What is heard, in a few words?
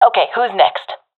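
i killed enemy, professional female; vo; Counter Strike Model Pack; CS:GO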